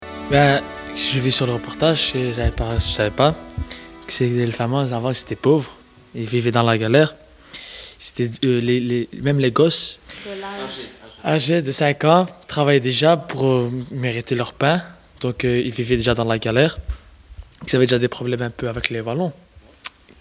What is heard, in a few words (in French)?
flamand explication